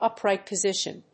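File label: "upright position", English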